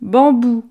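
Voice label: "bambou", French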